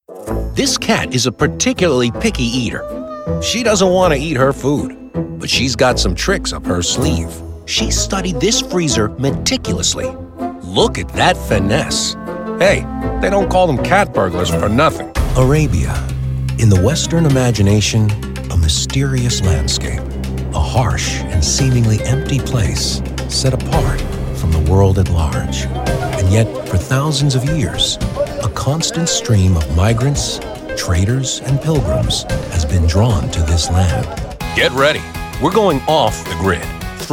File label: Broadcast Narration